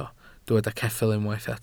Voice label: "ceffyl", Welsh